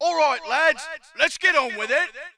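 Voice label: british attack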